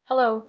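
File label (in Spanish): Voice Acting, es, female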